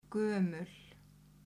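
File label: "gömul", Icelandic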